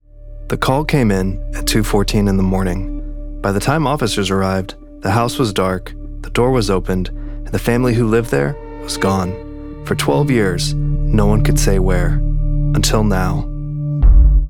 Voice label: narration documentary true crime, documentary investigative true crime measured